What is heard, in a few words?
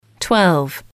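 "twelve", English, female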